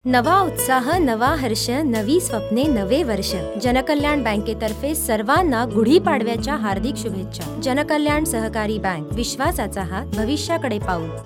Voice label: Marathi Radio Ad

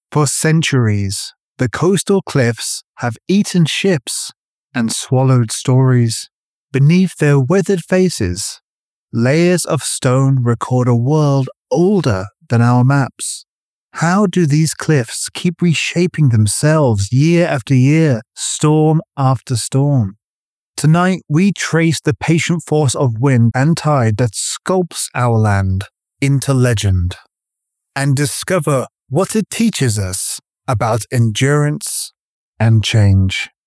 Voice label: narration documentary dry no effects

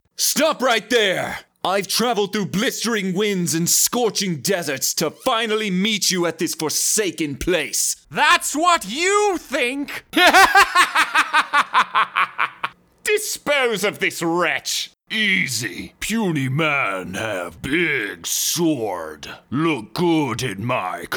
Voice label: Character raw audio

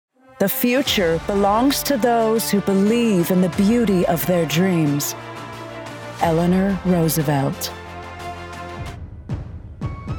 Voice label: POWERFUL QUOTES w music

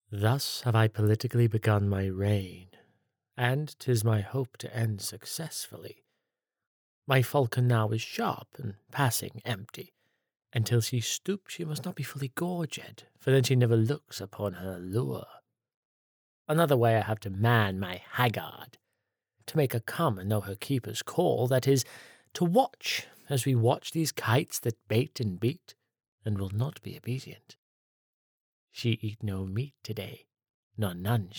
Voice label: Radio Drama

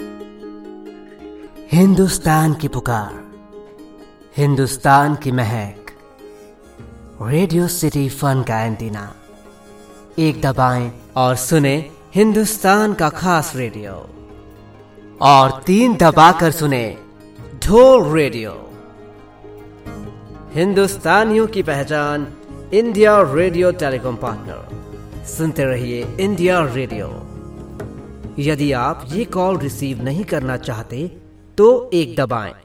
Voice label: India radio telecom ad; RADIOCITY FUN

